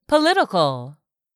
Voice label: "political", English; 3000 words

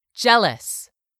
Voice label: "jealous", English word; 3000 words

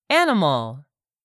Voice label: "ANIMAL", english; 3000 words

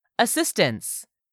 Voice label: "assistance", english; 3000 words

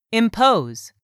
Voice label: "impose", English; 3000 words

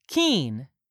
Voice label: "keen", English; 3000 words

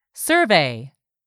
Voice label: "survey", English; 3000 words

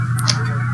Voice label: "BUDGET", english; 3000 words

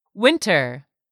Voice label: "winter", English; 3000 words

